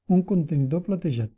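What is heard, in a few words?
trash can, Catalan; household; tuxpaint-stamps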